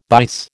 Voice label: "bice", American